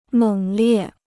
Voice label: "猛烈", Chinese

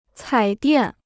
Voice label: "彩电", Chinese

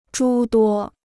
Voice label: "诸多", Chinese

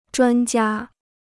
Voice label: "专家", Chinese

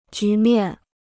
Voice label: "局面", Chinese

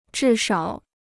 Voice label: "至少", Chinese

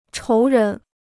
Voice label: "仇人", Chinese

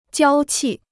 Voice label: "娇气", Chinese

